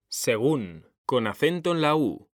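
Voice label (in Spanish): "según", Spanish; mekanta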